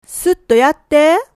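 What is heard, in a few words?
"sutto", Japanese dialect, woman; hogen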